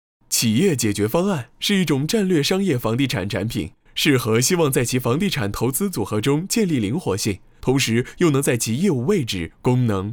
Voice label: chinese mandarin corporate videos